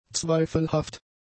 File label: "zweifelhaft", German; adjectives